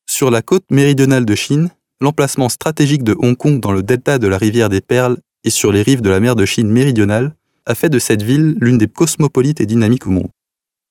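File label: French male corporate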